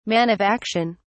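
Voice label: "man of action", English, uk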